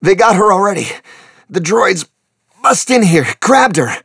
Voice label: wounded soldier, voice; Star Wars Obi Wan; XBOX

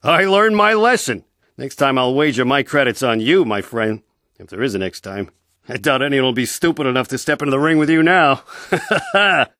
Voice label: male; Star Wars Knights Of The Old Republic I; XBOX

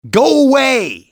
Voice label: "go away", english, male, civ; XBOX

